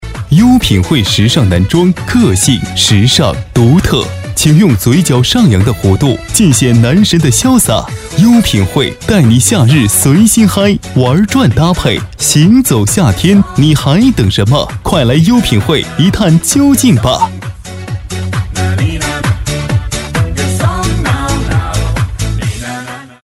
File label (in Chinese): 促销 男装, peiyin